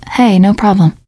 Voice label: you are welcome, teen girl; survivor voice

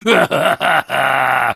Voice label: soldier tank warcry

